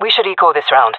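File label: request eco, female voice; player vo jungle fem; Counter Strike Model Pack; CS:GO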